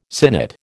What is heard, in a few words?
"sinnet", American